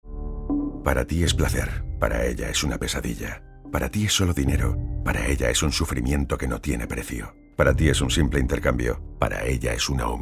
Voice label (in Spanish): radio trata castellano; violencia